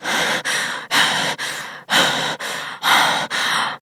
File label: Operator Voice; Warframe; Chimera